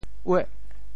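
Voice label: "ueh8", Teochew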